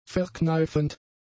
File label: "verkneifend", German; adjectives